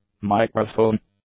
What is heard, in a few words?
"microphone", English; nouns